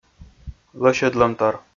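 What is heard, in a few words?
"лӧсьӧдлӧмтор", Komi, male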